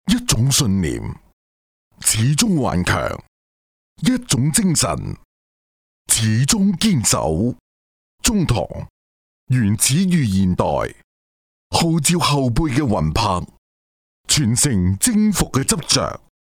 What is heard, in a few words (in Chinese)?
男粤 广告 地产 房地产 大气, 粤语